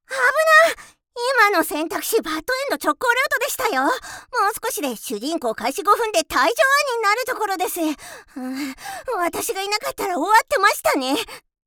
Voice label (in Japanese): セリフ テンション高いゲーム進行キャラ